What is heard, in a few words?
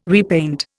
"repaint", Indian